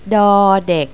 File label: "dek", Thai